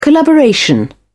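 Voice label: "collaboration", British English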